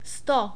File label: "sto", Czech; numbers